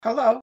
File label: woman "hello"